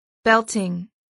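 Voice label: "belting", English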